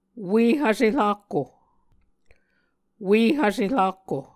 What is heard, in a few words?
"ue-hvse-rakko", muscogee